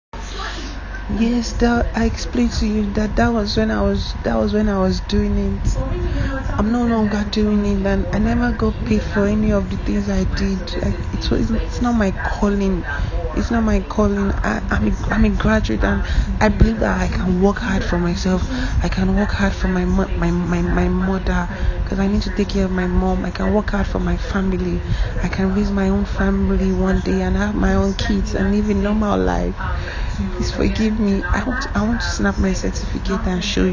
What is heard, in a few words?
audio Message